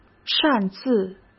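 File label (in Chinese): "擅自", Mandarin Chinese; hsk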